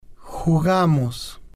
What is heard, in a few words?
"jugamos", spanish